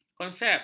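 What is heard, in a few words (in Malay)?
"konsep", Malay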